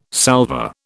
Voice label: "salver", British